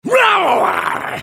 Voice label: spellcast effort, en US; Trundle